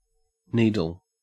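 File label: "needle", English, Australian accent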